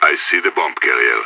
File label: bomber spotted, radio; IDF